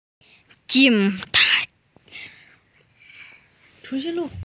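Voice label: "police", female; jing3cha2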